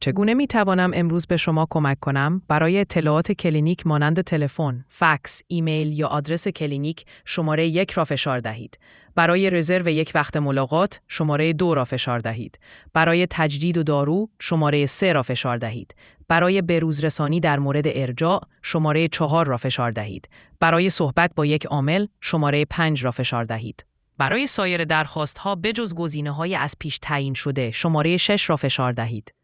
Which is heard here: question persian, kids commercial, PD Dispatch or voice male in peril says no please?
question persian